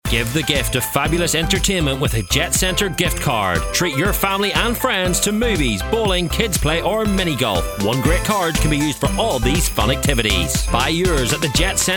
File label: Jet Centre GiftCard, Ads; Movie House Cinemas; Coleraine Only Ads